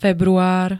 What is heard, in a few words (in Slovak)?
"február", slovenské